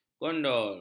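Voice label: "gondol", Malay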